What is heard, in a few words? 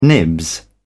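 "nibs", British English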